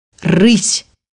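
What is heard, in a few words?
"lynx", Russian; bukvar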